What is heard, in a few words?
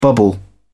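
"bubble up", English, British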